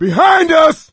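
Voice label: watch out behind, voice, male; Left 4 Dead 2; coach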